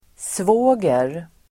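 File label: "svåger", Swedish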